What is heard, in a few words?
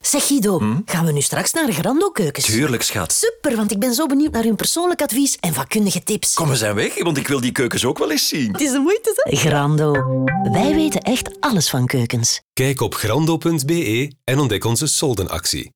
promo; grando; tagon solden 2019; studio sonart